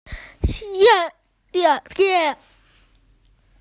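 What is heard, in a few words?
"forest", Mandarin; sen1lin2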